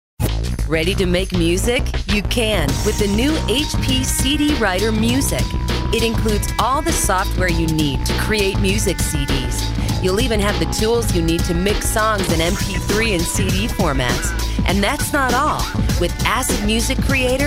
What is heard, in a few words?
Narr